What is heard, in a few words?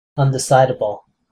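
"undecidable", US English; pronunciations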